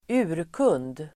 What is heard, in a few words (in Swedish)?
"urkund", Swedish